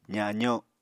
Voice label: "zazo", Apatani